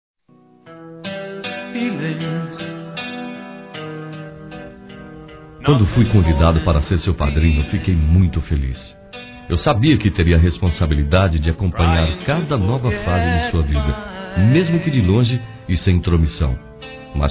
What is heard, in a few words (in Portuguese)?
Aniversário Afilhado (a) Masculino